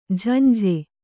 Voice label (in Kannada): "ಝಂಝೆ", Kannada